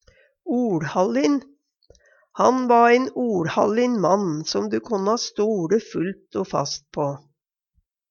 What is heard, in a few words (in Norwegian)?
oLhallin, Numedalsmål; Han va ein oLhallin mann, ein du konna stole fullt o fast på.; Adjektiv